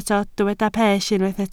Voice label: "pell", Welsh